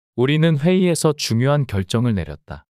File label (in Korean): 문장 남자